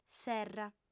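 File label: "serra", female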